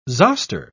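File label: "zoster", British English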